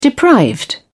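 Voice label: "deprived", English, British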